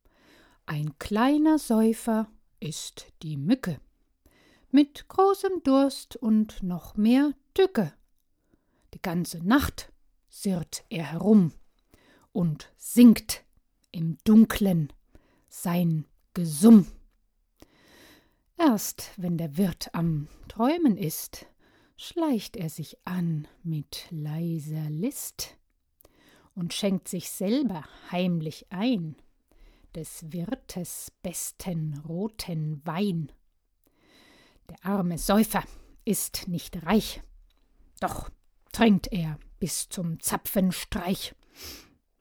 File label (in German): Dramatische Lesestimme